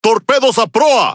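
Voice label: Spanish speech alarms torpedo ahead; World Of Warships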